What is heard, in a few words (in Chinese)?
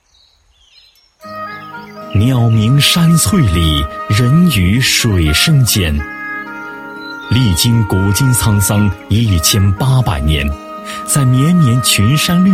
男 专题 宣传介绍, 国语男声配音 大气浑厚; 宁国市